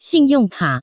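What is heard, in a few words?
"xinyongka", Taiwan Chinese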